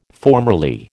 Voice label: "formerly", American